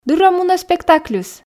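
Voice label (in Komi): "дыр-ӧ мунӧ спектакльыс", Komi, female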